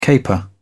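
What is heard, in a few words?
"caper", British English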